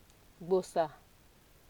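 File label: "gbosa", Nigerian Pidgin, female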